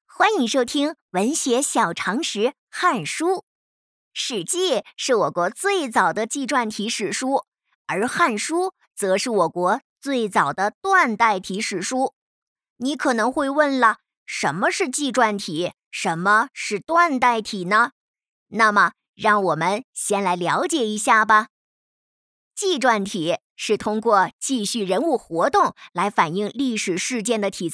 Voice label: 有声书儿童故事; 史记

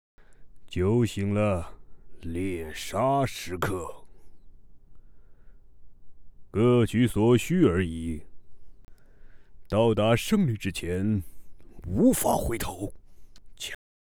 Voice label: 模仿

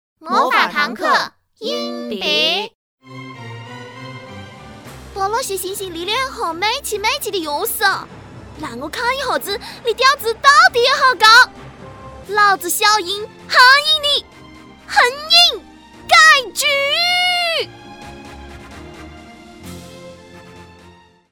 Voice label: 长沙话搞笑创意翻配

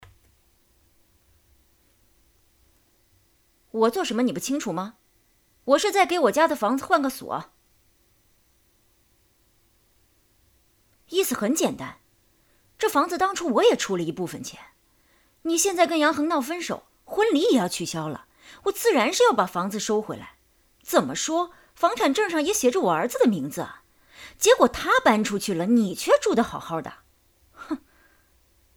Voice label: 广播剧; 妈妈

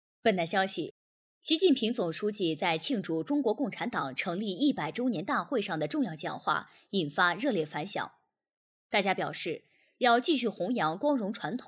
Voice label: 建档一百周年大会播报

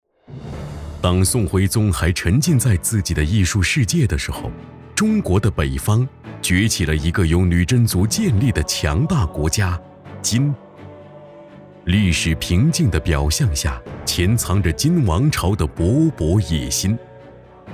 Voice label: 配音历史故事讲述风格

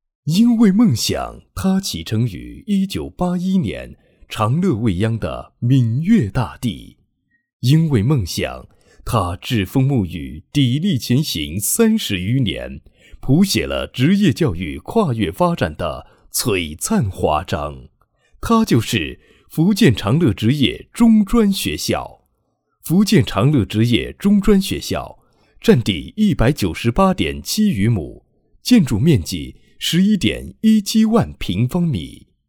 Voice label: 大气浑厚

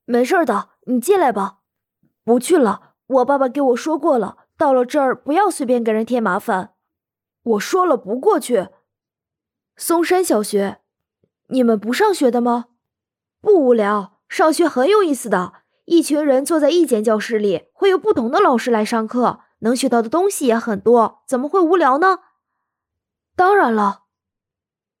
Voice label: 正太音

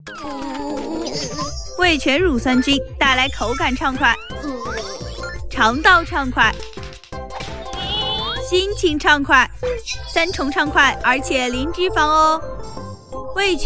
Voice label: 广告

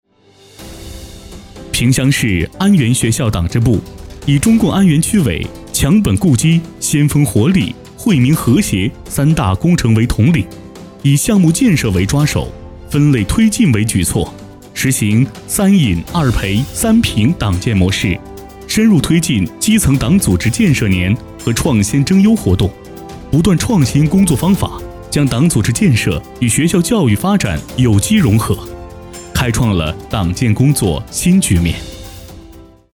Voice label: 党建配音词 奋发进取

